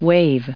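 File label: "wave", US English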